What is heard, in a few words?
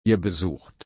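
"besuchen", German, slow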